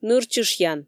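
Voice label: "нырчышъян", Komi, female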